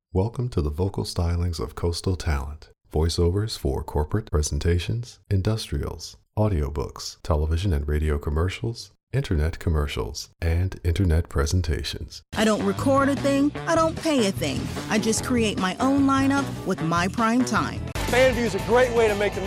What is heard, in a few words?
VO Sampler; Coastal Talent